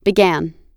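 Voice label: "began", US English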